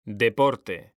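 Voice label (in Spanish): "deporte", Spanish; mekanta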